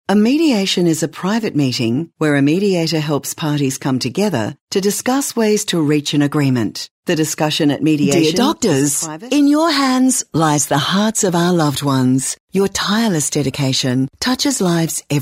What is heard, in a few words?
Narration